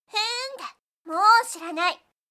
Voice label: anime, Irritated & Angry Phrases from Anime Girls